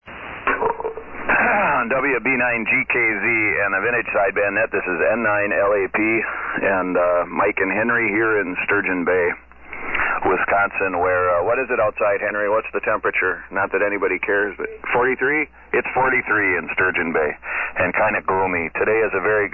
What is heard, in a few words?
Swan 700 CX & Swan Mark-II Amp; Sturgeon Bay, Wi.